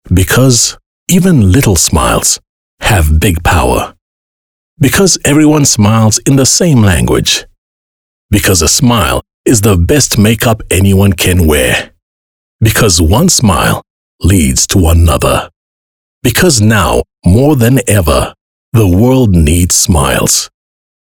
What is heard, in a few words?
English Soft Sell; OSM Talent